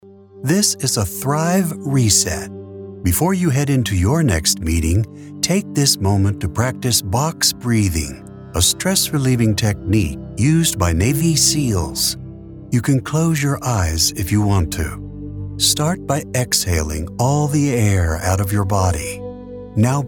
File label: Meditations